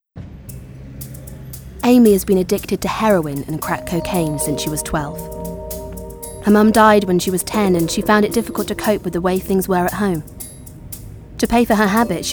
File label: Narrative; Narrative showreel